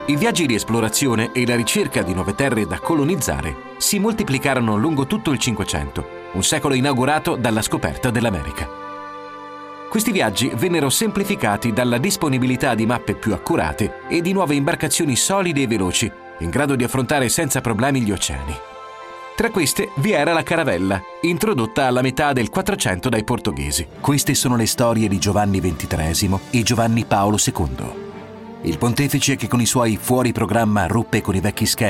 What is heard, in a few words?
corporate promotional voiceover